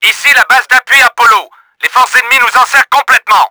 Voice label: FIREBASE UNDER ATTACK, french; Battle Engine Aquila; XBOX